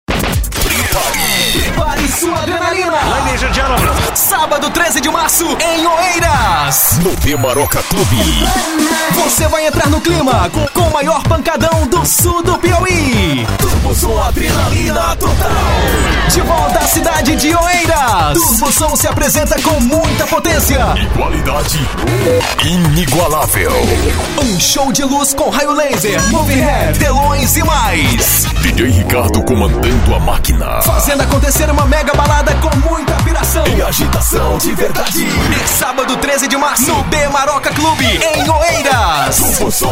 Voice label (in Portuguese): chamada voz; CHAMADA TURBO SOM OEIRAS - PI; Stúdio Nativa Produções